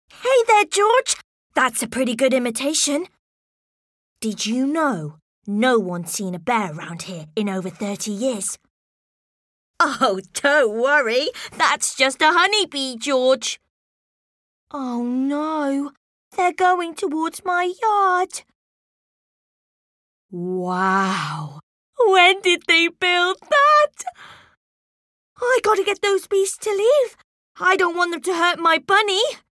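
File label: British English Character; voice demos; GoLocalise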